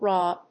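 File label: "RAWR", English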